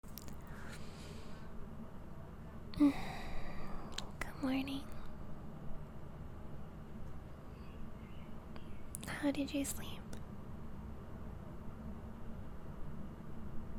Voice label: dirty talk gentle sensual soft voice cute, Erotic audio; F4M; Morning Fun